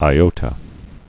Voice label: "iota", Greek alphabet